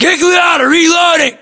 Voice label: inform reloading, US